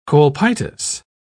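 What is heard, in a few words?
"colpitis", British English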